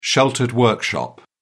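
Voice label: "sheltered workshop", British English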